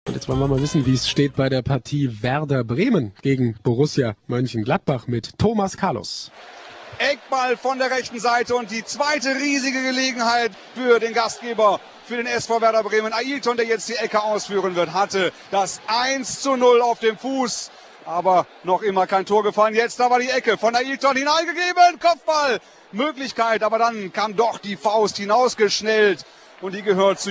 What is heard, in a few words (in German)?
reportage